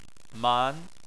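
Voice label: "man", Chinese, second tone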